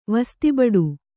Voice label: "ವಸ್ತಿಬಡು", Kannada

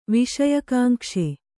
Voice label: "ವಿಷಯಕಾಂಕ್ಷೆ", Kannada; viṣaya kānkṣe; noun

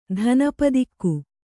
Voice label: "ಧನಪದಿಕ್ಕು", Kannada

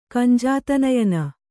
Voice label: "ಕಂಜಾತನಯನ", Kannada; kanjātanayana; noun; = ಕಂಜನೇತ್ರ.

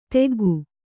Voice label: "ತೆಗ್ಗು", Kannada

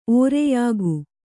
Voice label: "ಒರೆಯುಗಿ", Kannada

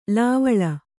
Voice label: "ಲೇವಳ", Kannada

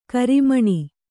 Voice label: "ಕಾರ್ಮಣ", Kannada

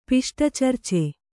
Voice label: "ಪಿಷ್ಟಚರ್ಚೆ", kannada

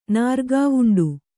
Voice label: "ನಾರ್ಗಾವುಂಡ", kannada

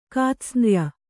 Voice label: "ಕಾತ್ಸ್ನ್ರ್ಯ", kannada

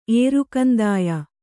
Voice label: "ಏರುಕಂದಾಯ", Kannada

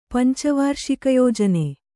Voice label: "ಪಂಚವಾರ್ಷಿಕಯೋಜನೆ", kannada

